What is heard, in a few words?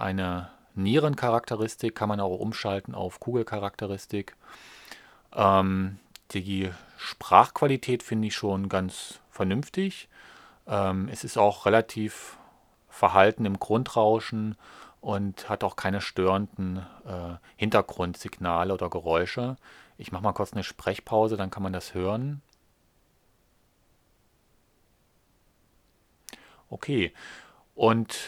Samson Go Mic; Schalter auf Niere; Screencasting; Screencasting für Einsteiger und Anfänger